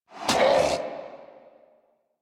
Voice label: Pain; Warframe; Zariman; Zariman Wraith